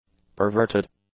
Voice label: "perverted", english; adjectives

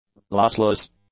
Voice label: "lossless", English; adjectives

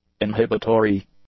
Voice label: "inhibitory", English; adjectives

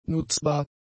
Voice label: "nutzbar", German; adjectives

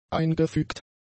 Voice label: "eingefügt", German; adjectives

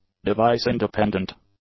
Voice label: "device-independent", english; adjectives

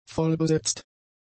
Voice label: "vollbesetzt", German; adjectives

